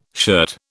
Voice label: "shirt", British